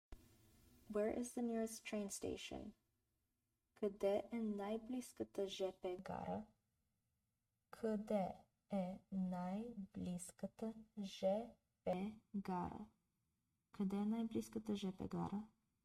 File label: BGN Where is the nearest train station, Bulgarian; the talking bulgarian phrasebook